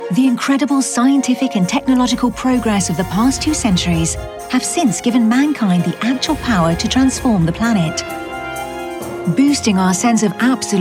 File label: Climate Docu